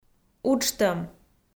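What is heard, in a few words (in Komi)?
"уджтӧм", Komi, female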